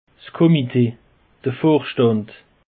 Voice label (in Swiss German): "komite", alsace; peche